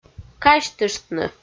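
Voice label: "казьтыштны", Komi, female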